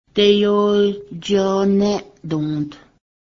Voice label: stag deer, cayuga; sadǫhsweˀdá:ni̱hǫh; starve

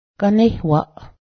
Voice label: hide skin, Cayuga; ganéhwaˀ

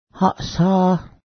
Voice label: carry, Cayuga; háˀsa; carry (v.)

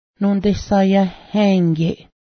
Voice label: copy mimic, cayuga; nodesayęhękyeˀ

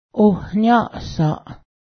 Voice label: neck, Cayuga

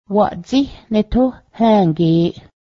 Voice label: later i will go there, Cayuga; waˀjíh netóh hę́:ge:ˀ

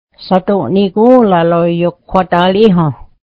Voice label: be careful the food is hot, mohawk

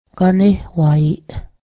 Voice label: deleware skin dance, Cayuga; ganéhwˀa̱e:ˀ

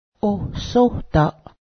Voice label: hands, Cayuga; ohsohdaˀ

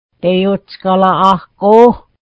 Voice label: pepper, mohawk

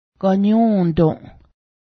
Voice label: fingers, Cayuga; ganyu:dǫˀ

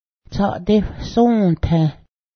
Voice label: midnight, Cayuga; tsadewa̱hsǫ́taih

